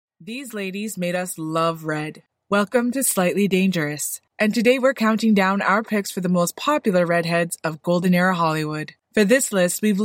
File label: female english canadian neutral north american accent; documentary